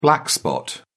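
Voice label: "black spot", British English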